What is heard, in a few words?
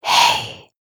asmr girl "hey"